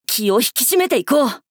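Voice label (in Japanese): 「気を引き締めていこう！」, 男の子 王道系少年主人公 戦闘ボイス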